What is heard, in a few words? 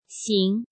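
"Xíng", Chinese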